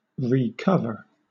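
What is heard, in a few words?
"recover", British English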